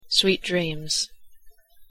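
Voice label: pronunciation "sweet dreams", English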